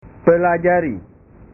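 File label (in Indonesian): "pelajari", Indonesian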